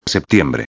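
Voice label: "septiembre", Spanish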